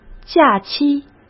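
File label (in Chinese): "假期", Mandarin Chinese; chinese-flashcards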